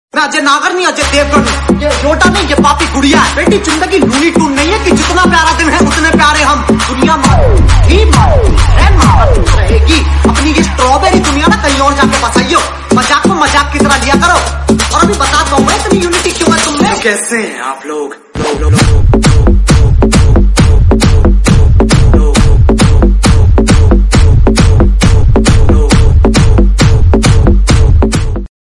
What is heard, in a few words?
dialogue funny